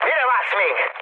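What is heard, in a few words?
attack position, mood med, Hungarian; War Thunder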